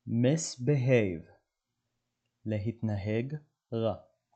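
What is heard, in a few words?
"misbehave" English, "להתנהג רע" Hebrew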